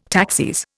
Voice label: "taxis", Indian